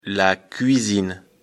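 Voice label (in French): "cuisine", French; afrikalan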